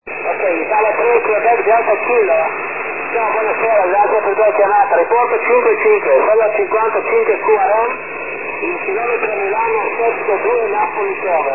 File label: 6m ssb